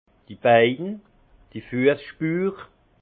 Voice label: "bein", alsace; jambes; vin

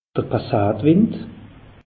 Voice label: "passatwind", alsace; meteo

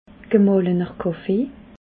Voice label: "gemalener kaffee", alsace

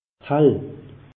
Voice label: "hall", alsace; meteo

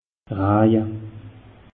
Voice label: "raje", alsace; meteo

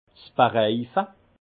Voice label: "bereife", alsace; vin; cerclage

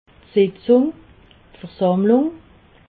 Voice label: "sitzung", alsace

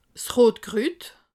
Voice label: "rotkrut", alsace

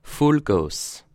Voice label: "voll", alsace

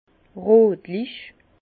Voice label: "rotlich", Bas Rhin; vin; fauve

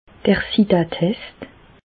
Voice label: "sida test", Alsace, Bas Rhin; medecine

